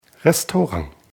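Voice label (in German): "restaurant", German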